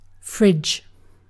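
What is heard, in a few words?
"fridge", British English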